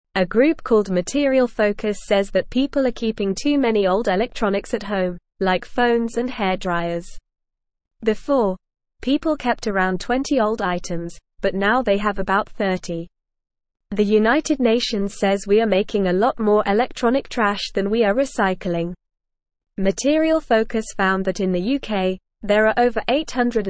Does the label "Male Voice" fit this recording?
No